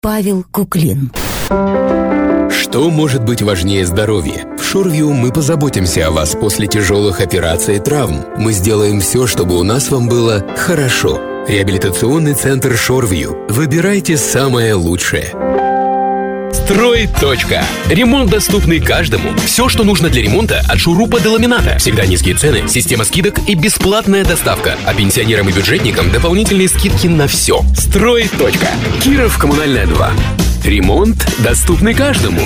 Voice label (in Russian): REKLAMA